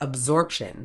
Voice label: "absorption", Medical English; əbˈzɔːp.ʃən; uhb-ZORP-shuhn